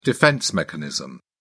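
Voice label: "defense mechanism", British English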